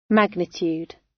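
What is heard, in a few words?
"magnitude" British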